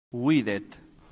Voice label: "videt", latin; santillana

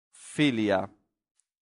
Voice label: "filia", latin; santillana

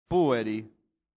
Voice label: "pueri", latin; santillana

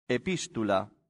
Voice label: "epistula", latin; santillana

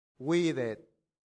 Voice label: "videt", latin; santillana

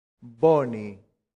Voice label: "boni", latin; santillana

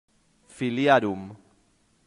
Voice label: "filiarum", latin; santillana

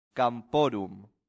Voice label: "camporum", latin; santillana

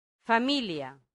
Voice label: "familia", latin; santillana

